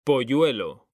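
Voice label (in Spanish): "POLLUELO", Spanish; mekanta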